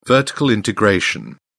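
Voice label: "vertical integration", British English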